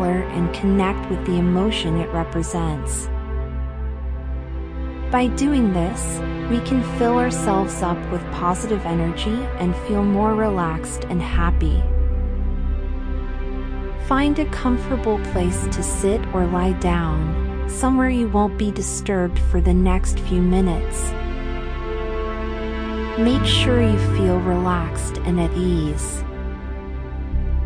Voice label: Rainbow Relaxation Meditation child; Rainbow Visualization Meditation